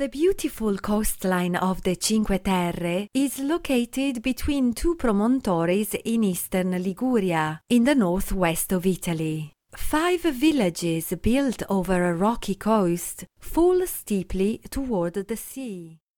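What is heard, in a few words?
Italian accented English; GoLocalise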